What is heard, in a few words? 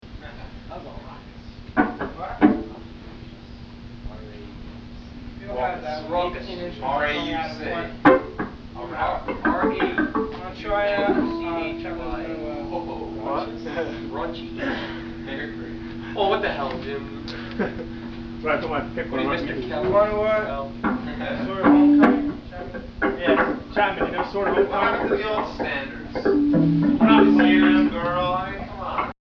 chatter